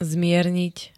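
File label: "zmierniť", Slovak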